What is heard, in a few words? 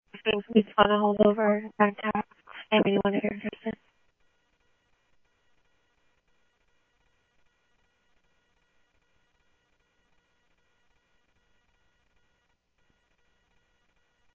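Mesa Police Department, scanner audio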